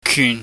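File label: "kyun", Cantonese, low falling tone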